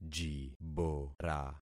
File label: "jibora", concat syllables; Affixing experiment